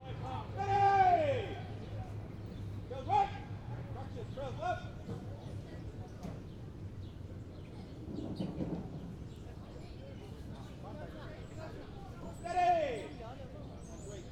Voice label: Crowd, Military, Army, Squad, Medium Distant, Light, Milling, Work, Voices, Activity, Drill Sergeant; Shout